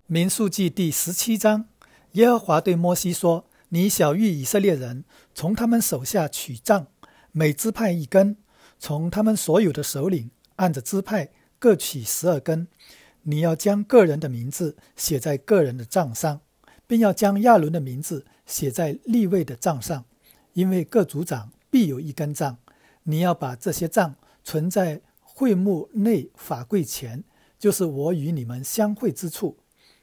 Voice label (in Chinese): 民17 经文 国语; OT 舊約; Mandarin